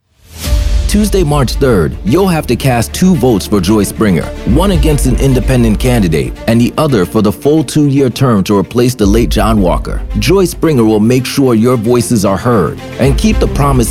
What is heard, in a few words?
POLITICAL AD